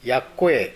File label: "yakkoe", minamiyamagata; やわらかい。